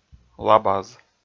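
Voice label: "лабаз", Komi, male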